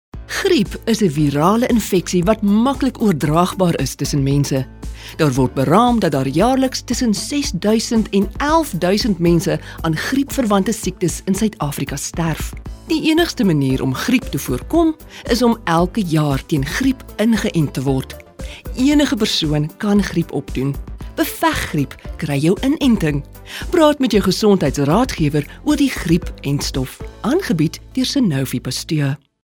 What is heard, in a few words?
English South African others